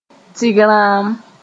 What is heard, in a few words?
"tɩg rãam", moore